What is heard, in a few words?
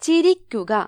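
"laugh", Malayalam; gcompris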